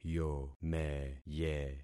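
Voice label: "yomeye", concatenated syllables; Affixing experiment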